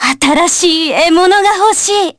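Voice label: Vox Victory, Japanese; Yanne L; kingsraid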